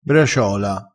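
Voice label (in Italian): "braciola", dialettale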